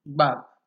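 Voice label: "gbab" Nigerian Pidgin, male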